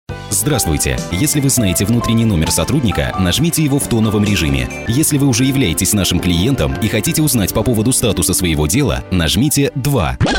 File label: IVR